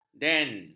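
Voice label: "den", Malay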